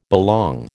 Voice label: "belong", American